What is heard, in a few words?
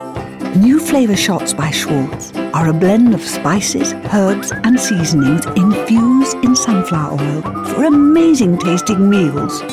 Commercial